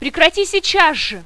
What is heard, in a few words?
fear; svencoop; paranoia; medic